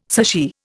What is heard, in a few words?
"sushi", Indian